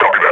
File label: idle, grunt; Half Life Model Pack